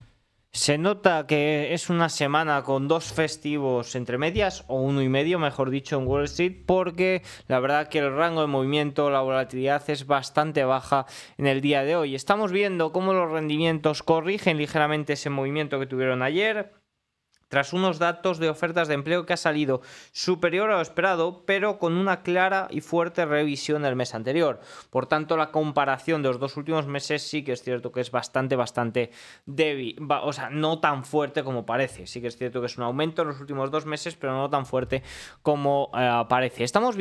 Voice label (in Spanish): radio; noticias bolsa